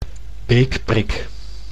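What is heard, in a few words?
"beekprik", Dutch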